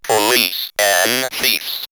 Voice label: Robot, robot vox; X-Static Goldmine